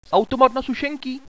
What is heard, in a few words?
vending machines, radio bot